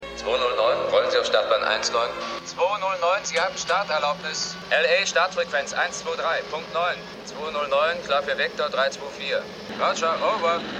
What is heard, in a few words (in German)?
Towerstimme Bodenkontrolle; Stimmproben; AirPlane